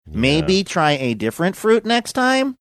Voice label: saying maybe try different fruit next time robotic